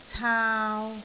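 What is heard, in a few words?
"caau3", Cantonese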